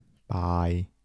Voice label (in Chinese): "拜", 台城, 男